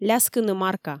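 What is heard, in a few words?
"ляскыны марка", Komi, female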